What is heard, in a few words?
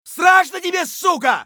scav battlecry; eft